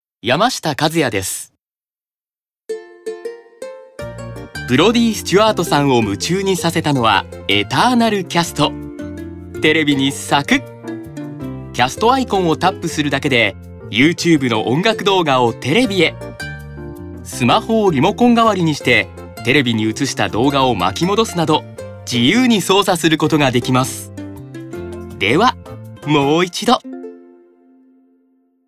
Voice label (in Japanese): ナチュラル